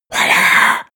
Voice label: male whisper spell

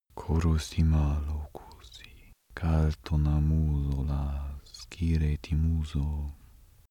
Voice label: male pray

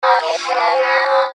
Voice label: asian comic voice

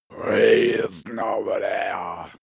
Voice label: male curse evil

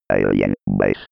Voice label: robot voice alien base

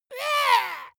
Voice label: dwarf disgusted

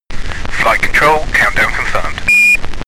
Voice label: astronaut chatter countdown

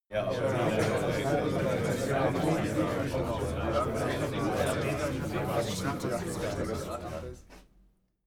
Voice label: talk german neutral short

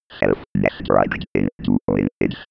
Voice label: old computer voice

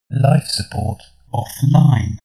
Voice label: male system status

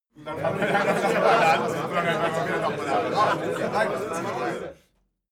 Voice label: talk happy short

